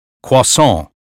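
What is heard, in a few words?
"croissant", American phonetics; 英语音标发音（剑桥版）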